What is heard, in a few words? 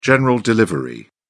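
"general delivery", British English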